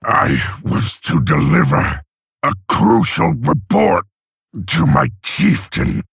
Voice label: Male Orc